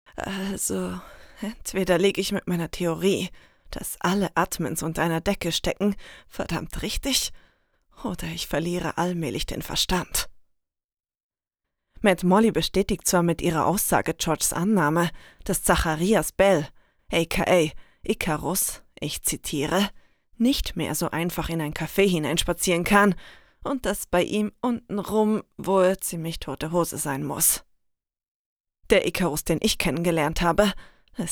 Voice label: Auszug, sprecherinnen; Stimmen Weiblich

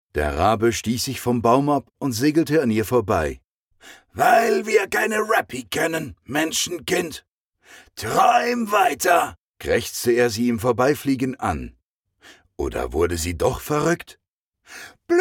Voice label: Trailer Urban Fantasy

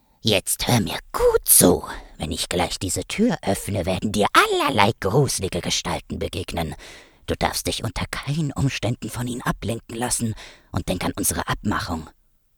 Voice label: Fantasy Hoerbuch

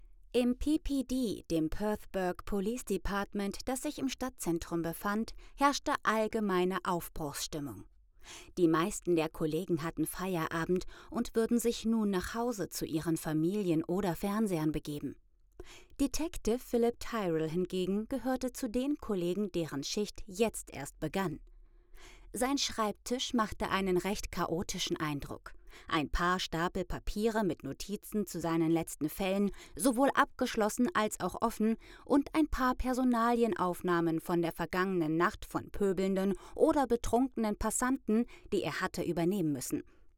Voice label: Hoerbuch Fantasy

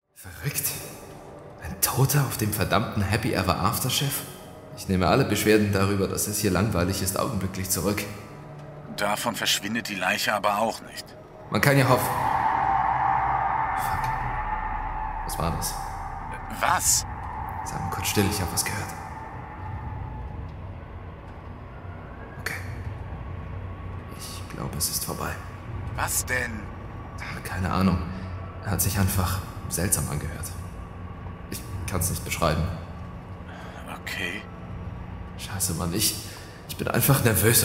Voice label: Horror, sprecherinnen; Stimmen Weiblich

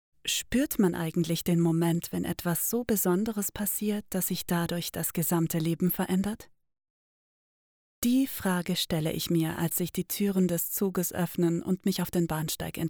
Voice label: Hoerprobe Roman

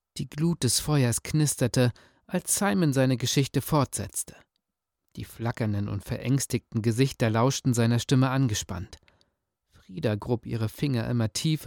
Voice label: Hoerprobe Gruselbuch; Stimmen Weiblich

